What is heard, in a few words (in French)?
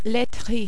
"laiterie", French